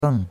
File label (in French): "beng4", chinois; Cours de Chinois